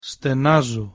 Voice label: "stenazo" Greek